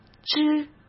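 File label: "支" Mandarin Chinese; hsk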